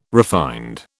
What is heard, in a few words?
"refined", British